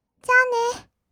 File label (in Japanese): じゃあね, kawaii voice; ASMR; 挨拶; 1200 kawaii voice!!